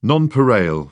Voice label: "nonpareil", British; AmericanBritish

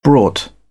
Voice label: "brought", British; ɑː - ɔː; English

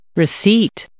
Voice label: "receipt", English

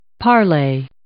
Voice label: "parlay", English

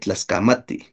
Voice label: "tlaskamati", Náhuatl; gracias; thank you

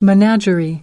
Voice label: "menagerie", American; English; ɚ - əɹ

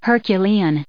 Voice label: "herculean", English; adjective

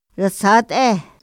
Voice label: "ra zat´e", Otomí; INPI; INALI

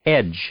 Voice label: "edge", English; noun

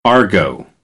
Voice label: "argot", American English

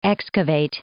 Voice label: "excavate", English; verb

